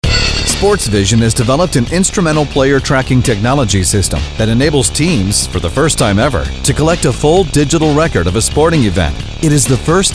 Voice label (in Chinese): 外籍男 商业广告 Commercial; SportsVision